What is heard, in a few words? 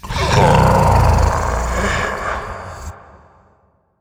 hurt; brainrot; italian brainrot 3; nihilanth